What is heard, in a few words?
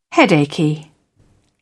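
"headachy", British English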